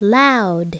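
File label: "loud", spoken word; Princess and the Pea; SoftKey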